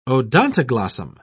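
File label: "odontoglossum", English, British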